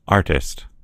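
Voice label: "artist", American English; gcompris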